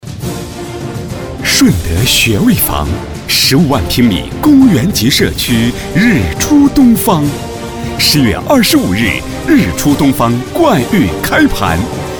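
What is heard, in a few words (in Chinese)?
广告片地产; 顺德地产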